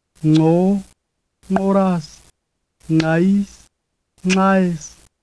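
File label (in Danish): nama, stemt nasal, clicks; [ŋǀo] [ŋǂoras] [ŋǂais] [ŋǀǀaes]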